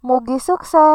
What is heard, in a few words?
good luck, sundanese